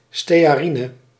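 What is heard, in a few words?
"stearine", Dutch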